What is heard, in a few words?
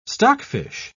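"stockfish", English, British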